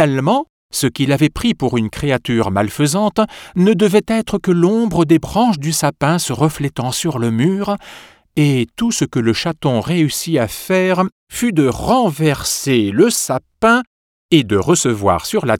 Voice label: voix off conte petit soldat

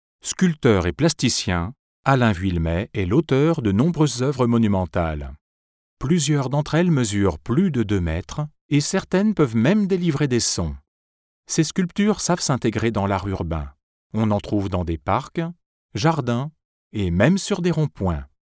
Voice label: voix off docu

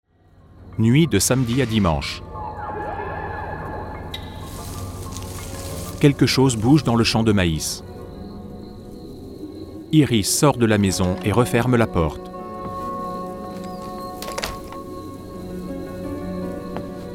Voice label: voix off audiodesc warnings

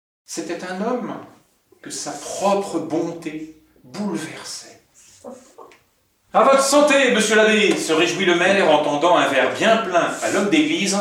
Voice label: voix off lecture voix haute; belle maison

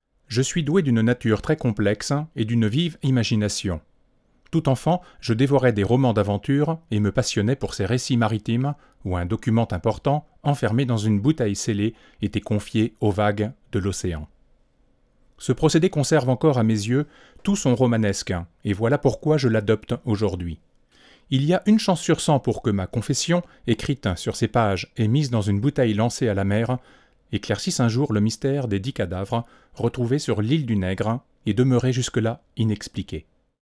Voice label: test micro c414 lecture adulte

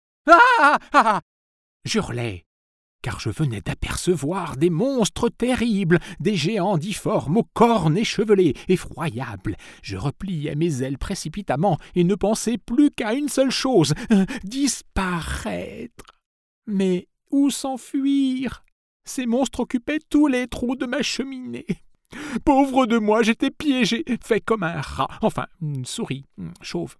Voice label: voix off conte habite cheminee

